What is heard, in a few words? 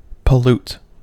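"pollute", American English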